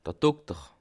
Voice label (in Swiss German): "dokter", Alsace